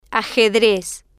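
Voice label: "ajedrez", Spanish, female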